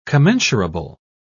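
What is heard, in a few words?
"commensurable", English, British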